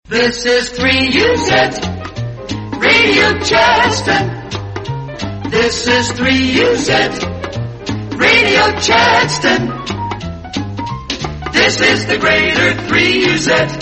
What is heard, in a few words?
australian otr promos and idents; 3uz radio chadstone